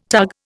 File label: "tug", Indian